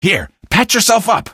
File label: health, male; Source Engine; video game; npc